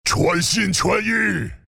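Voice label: attack, chinese; dota2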